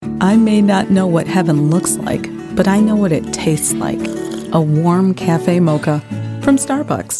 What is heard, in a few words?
Commercial Natural Warm